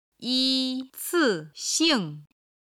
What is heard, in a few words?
"yicixing", Chinese